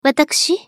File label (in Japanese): ウィザード女 選択